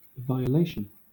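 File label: "violation" English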